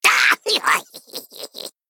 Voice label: Male Critter Play